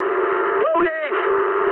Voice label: eng enemy detected mood med, speech eng